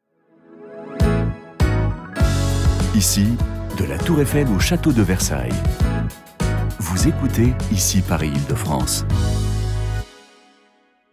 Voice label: JINGLE; ICI PARIS IDF